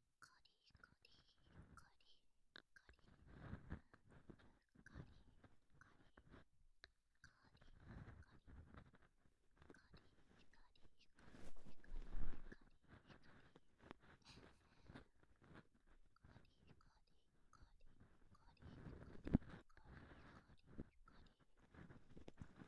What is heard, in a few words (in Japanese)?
かりかり 囁きながら 耳かき; 安眠セット; オトギノリフレ～シンデレラ～